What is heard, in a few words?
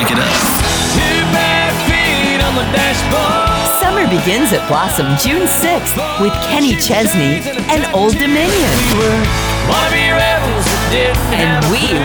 Concert Promo